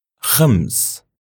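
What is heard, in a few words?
"khams", Arabic; numbers; raqm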